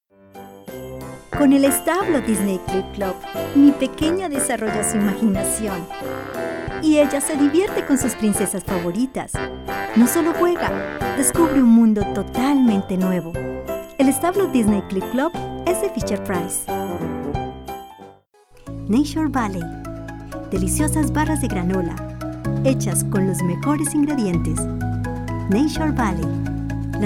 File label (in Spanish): Comercial